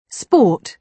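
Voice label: "sport", British English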